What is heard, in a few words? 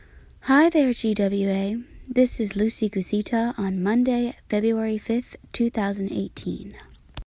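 Verification, Female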